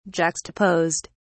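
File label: "juxtaposed", British English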